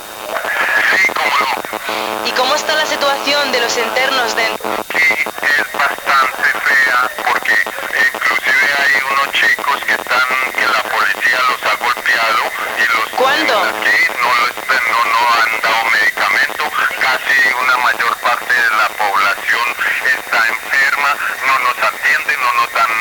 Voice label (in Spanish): anuncio Huelga